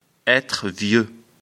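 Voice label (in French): "vieux", French; afrikalan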